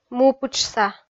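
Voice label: "мупытшса", Komi, female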